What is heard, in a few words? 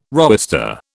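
"roister", British English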